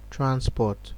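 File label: "transport", Nigerian Pidgin, male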